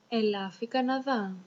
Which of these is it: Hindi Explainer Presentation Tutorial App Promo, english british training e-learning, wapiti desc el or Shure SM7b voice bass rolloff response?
wapiti desc el